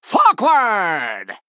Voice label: Male; Fork Play